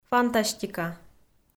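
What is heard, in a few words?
"фантастика", Komi, female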